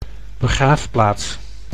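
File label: "begraafplaats", Dutch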